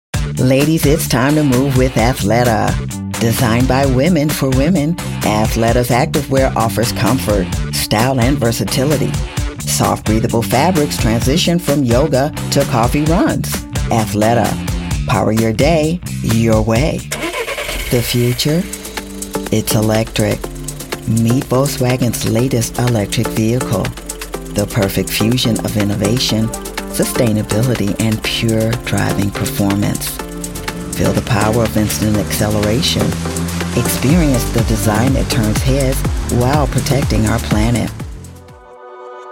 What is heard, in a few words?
commercial